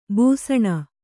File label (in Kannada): "ಬೇಸಿನ್", Kannada